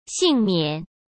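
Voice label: "幸免", Mandarin Chinese; hsk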